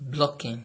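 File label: "blocking", Nigerian Pidgin, male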